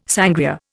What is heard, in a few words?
"sangria", Indian